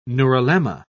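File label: "neurilemma", British English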